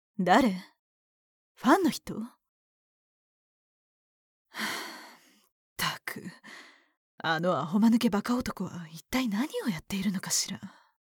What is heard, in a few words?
woman